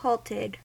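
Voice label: "halted" US English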